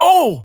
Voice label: Pain, Battle Barks; Warframe; BaroDefense